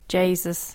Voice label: "Jaysus", english